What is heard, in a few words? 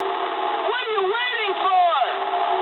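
English attack position mood low; speech; War Thunder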